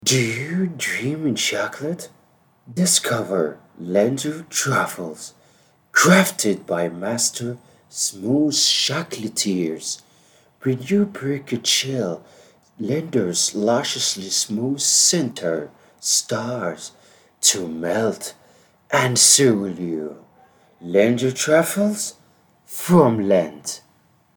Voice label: chocolate commercial